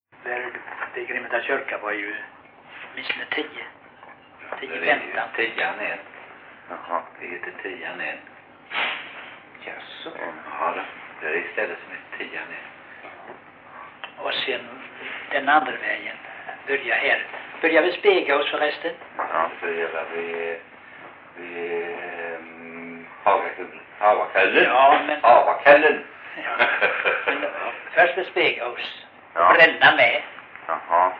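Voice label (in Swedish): Rolfstorp Halland, dialekter; Folkminnen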